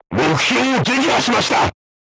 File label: voice message target destroyed, crew tanks japanese